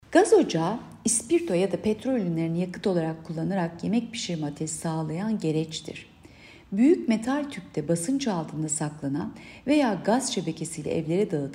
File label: turkce